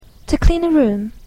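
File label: "to clean a room", English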